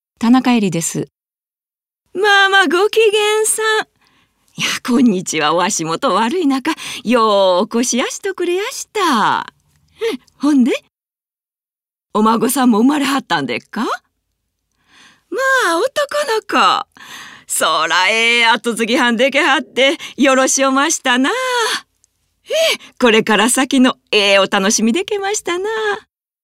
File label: drama osaka